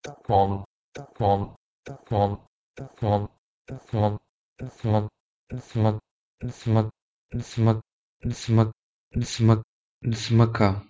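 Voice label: Proto-Indo-European dhghmon to Pashto dzmakka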